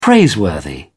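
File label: "praiseworthy", English, British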